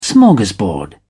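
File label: "smorgasbord", British English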